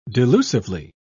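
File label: "delusively", British English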